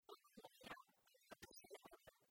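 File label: "абу ловъя а мортӧс велӧдӧ", Komi, female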